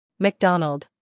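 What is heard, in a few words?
"scandal", American; mcdonald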